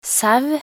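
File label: "sau", Norwegian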